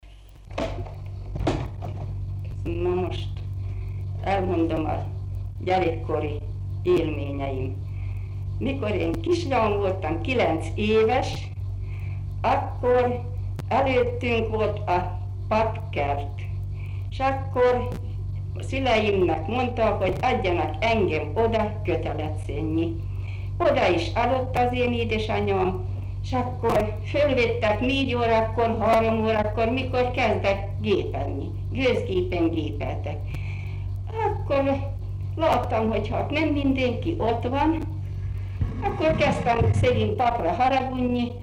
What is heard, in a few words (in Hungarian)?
nyelvjárás gyűjtések